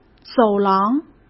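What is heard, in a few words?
"走廊", Mandarin Chinese; hsk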